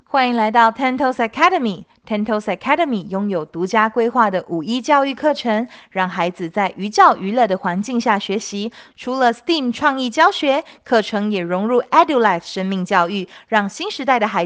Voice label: Welcoming Voice Recording; BC Version